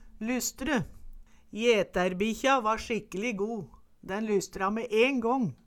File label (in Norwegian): lystre, Numedalsmål